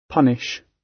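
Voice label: "punish", British; Crime and punishment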